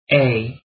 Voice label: "e", afrikaans alphabet

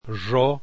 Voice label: "jx", Esperanto alphabet

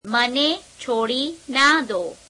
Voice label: gujarati dont leave me; [imperative negation]; મને છોડી ન દો; [mene chheoḍeī n deo]

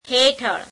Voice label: gujarati under; હેઠળ [heeṭheḷ]; Prepositions

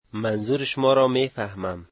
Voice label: I understand you, dari

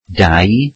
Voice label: "two", Welsh

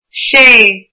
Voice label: "six", Irish

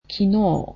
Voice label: "yesterday", Japanese

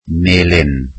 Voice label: "yellow", Welsh

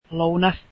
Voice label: finnish lunch

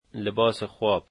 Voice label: "pajamas", Dari

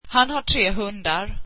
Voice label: swedish he has three dogs

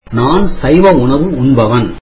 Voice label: tamil Im vegetarian

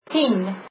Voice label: ten, afrikaans

